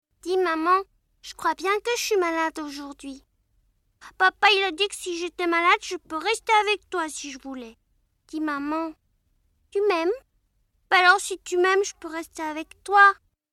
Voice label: Voix a capella, enfants filles et garcons

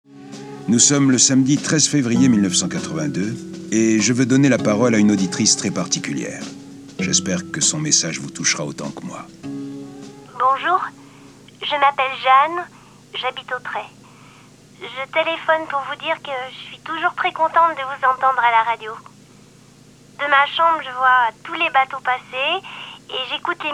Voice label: fillette, petites filles; Sillons

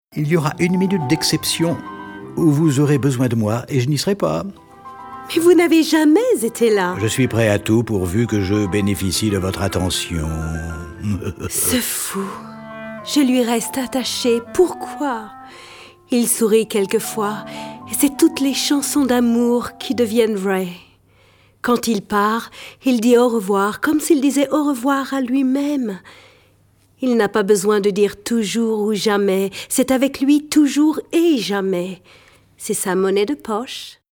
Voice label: Americaine, ACCENTS, VOIX PARLEES

